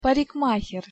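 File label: "parikmaher", Russian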